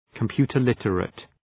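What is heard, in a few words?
"computer literate", English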